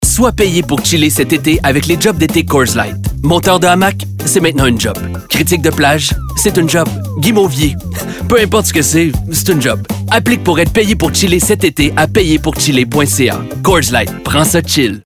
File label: Advertisement; coors